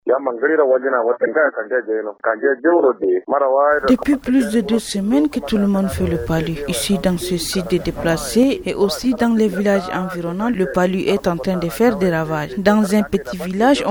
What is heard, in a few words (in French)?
cries des deplaces sur le paludisme, fr